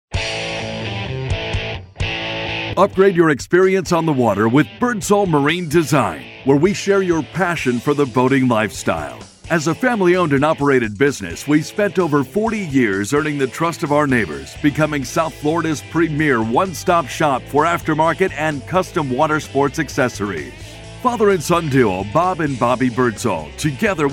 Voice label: Powerful EQ Fat Lip

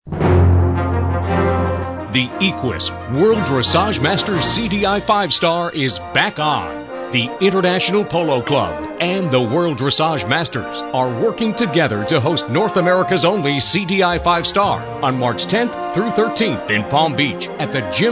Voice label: public address announcement; international polo club

